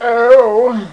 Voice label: Effeminate Briton; Mad Cow Roulette

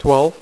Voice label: "6", poker; iPoker 2000

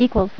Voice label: "=", Voice Female; TalkingKeys

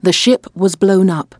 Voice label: ship, English

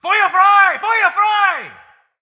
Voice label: german; german 18; Foyo fry! Foyo fry!; SpecOps2

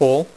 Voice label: "full", Dealer's Speech; PowerPOKER Demo

